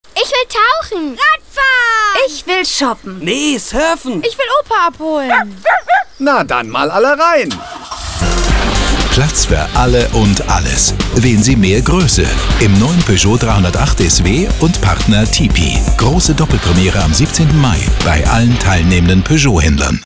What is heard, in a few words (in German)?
Werbung